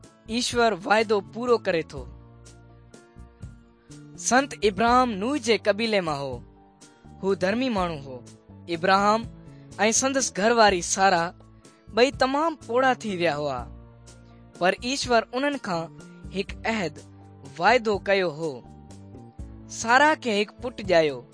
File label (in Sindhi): good news sindhi dukslinu, drama